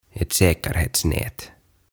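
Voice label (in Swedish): "ett säkerthetsnät", Swedish; Turvaverkko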